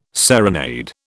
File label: "serenade", British